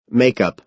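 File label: "make-up", American English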